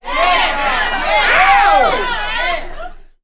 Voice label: "yeah yeah yeah" several people; ooh ahh